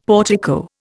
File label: "portico", Indian; Dictionary